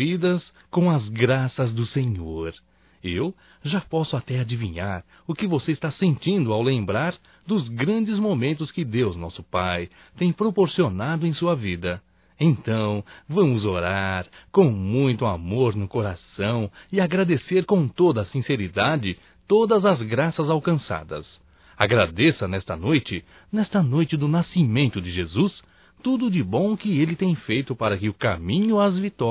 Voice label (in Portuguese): NATAL NEUTRO; masc; Telemensagens 2017; Natal & Ano Novo